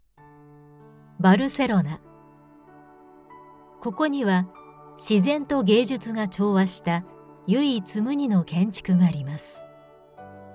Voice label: japanese audio guides

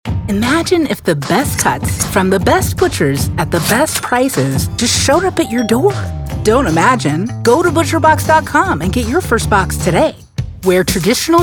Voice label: english american commercial

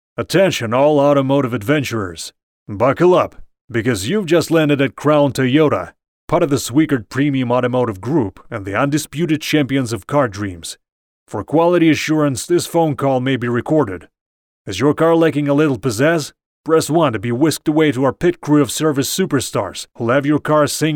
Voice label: english ivr

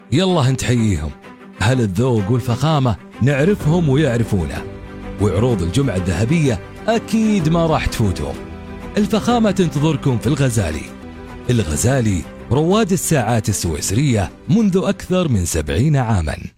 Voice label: arabic narration

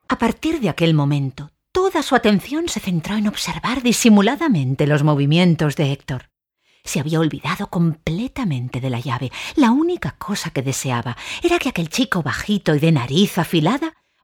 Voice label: spanish narration

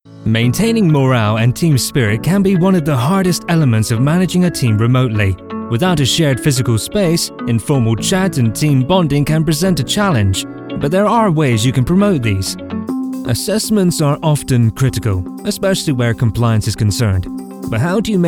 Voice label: english british e learning

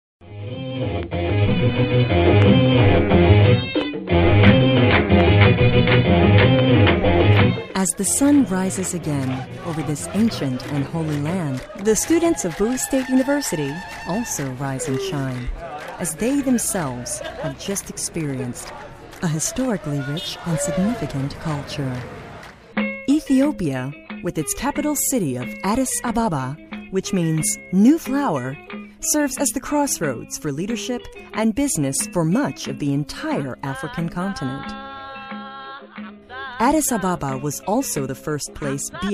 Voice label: english american documentaries

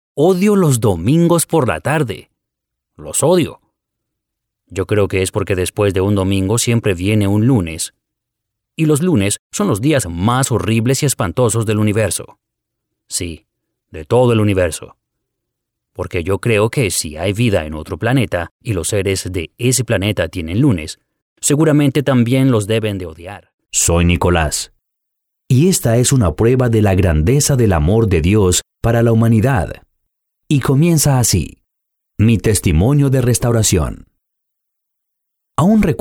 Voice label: spanish colombian audiobooks

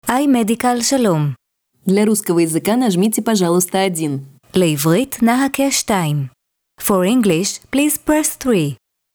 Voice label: IVR; iMedical

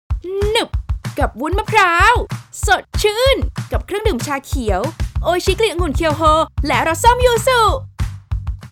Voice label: thai promos

